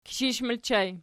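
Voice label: "kishish" ergative plural, Archi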